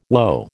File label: "woe", American